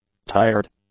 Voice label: "tired", English; adjectives

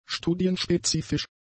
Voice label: "studienspezifisch", German; adjectives

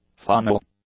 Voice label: "faunal", english; adjectives

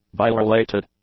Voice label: "bile-related", English; adjectives

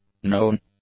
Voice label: "known", english; adjectives

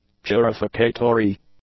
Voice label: "purificatory", english; adjectives

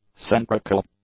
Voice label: "centrical", English; adjectives

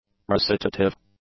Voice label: "recitative", English

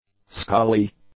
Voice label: "scaly", English; adjectives

